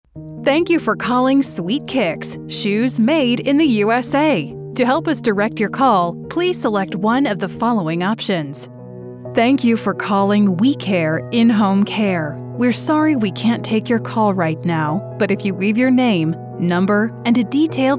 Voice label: english american ivr